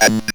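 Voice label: ROBVOX, robot voice; E-Lab; X Static Goldmine 1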